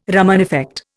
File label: "Raman effect", Indian